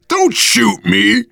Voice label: friendly fire, voice, biker; Left 4 Dead 2; survivor